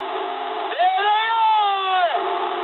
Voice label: eng enemy detected mood high, speech eng; War Thunder

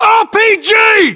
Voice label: threat rpg, english battlechatter; uk

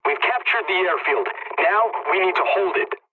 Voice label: pilot capture ground ally, english; War Thunder

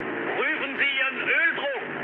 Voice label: damaged oil, mood low, speech ge; War Thunder

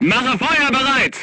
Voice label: voice message reloading, crew tanks german

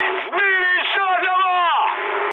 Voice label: player killed enemy, mood high, speech ru; War Thunder

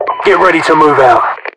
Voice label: ready to move, localized english, UK voiceovers; mpvoice

